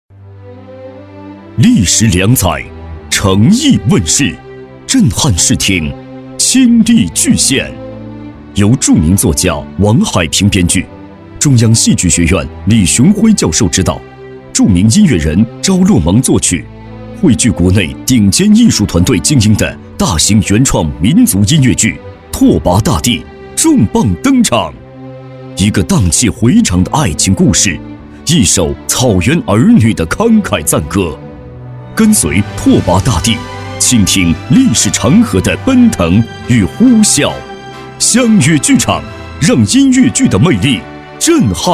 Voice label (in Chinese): 栏目 预告